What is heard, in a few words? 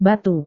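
"batu", Indonesian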